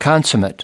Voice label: "consummate", us